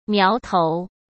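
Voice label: "苗头", Mandarin Chinese; hsk; chinese-flashcards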